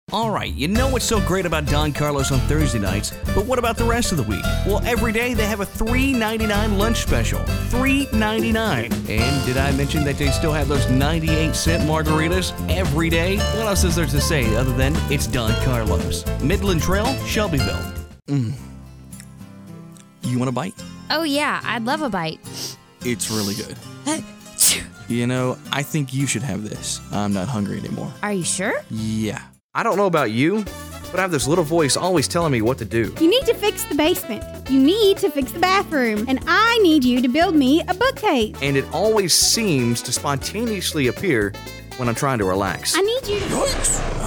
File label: commercial